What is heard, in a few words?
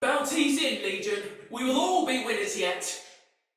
Patrol Spoken Soldier Female; Battle; Barks; Dialog; Sinecure Soldier VIP Female A